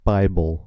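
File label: "bible", American English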